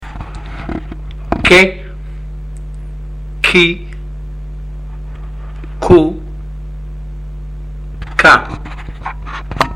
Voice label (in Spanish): KAFUN CON VOCALES, ARABE; ARABE A1; ALFABETO; LETRAS CON VOCALES